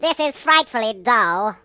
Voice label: "Boring", British; hedgewars

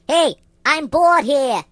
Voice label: Boring, Mobster; hedgewars

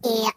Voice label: "Ouch", Robot; hedgewars

